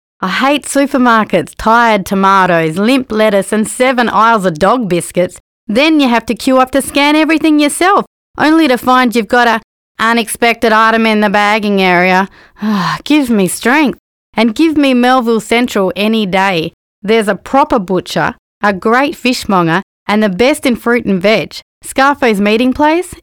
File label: voice demo